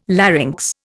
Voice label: "larynx", Indian

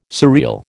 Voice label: "surreal", American

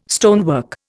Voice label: "stonework", Indian

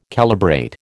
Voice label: "calibrate", American

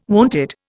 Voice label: "wonted", Indian

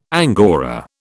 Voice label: "angora", British

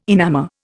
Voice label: "enamour", Indian

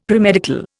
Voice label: "premedical", Indian; Dictionary

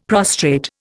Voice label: "prostrate", Indian; Dictionary

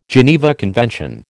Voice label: "Geneva Convention", American

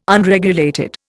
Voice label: "Unregulated", Indian

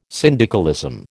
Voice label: "syndicalism", American

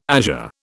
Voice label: "azure", British

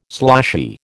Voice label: "sloshy", American

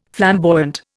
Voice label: "flamboyant", Indian

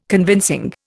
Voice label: "convincing", Indian

